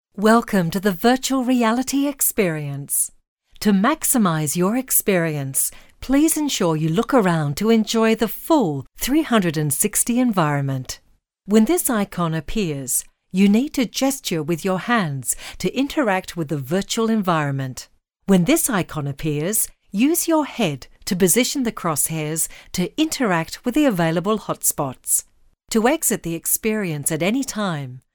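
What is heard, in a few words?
Australian English, female, voice over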